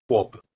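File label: "wobh", Proto-Indo-European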